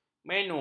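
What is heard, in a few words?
"menu", Malay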